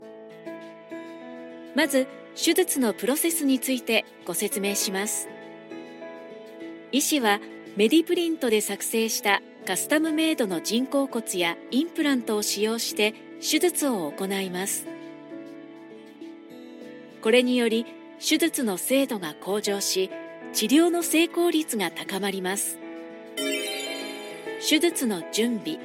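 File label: japanese medical narration, Femelle; Narration médicale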